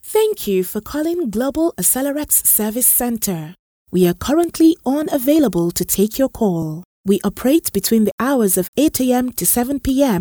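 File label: english african interactive voice response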